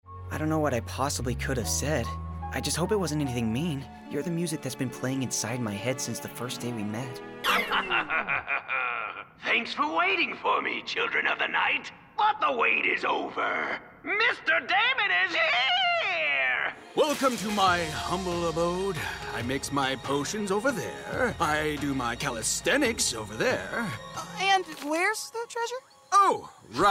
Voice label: VO Animation; Character contrast from grounded leads to heightened personalities